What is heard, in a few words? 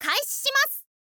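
"kaishishimasu", Japanese, girl; voice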